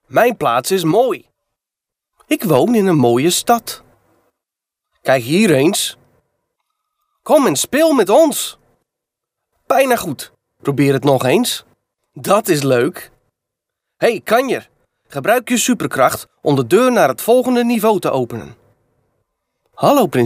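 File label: Dutch male children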